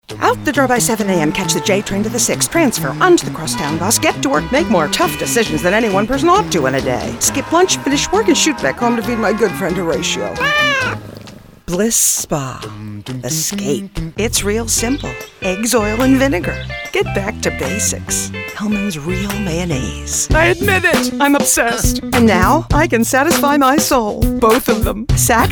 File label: radio spots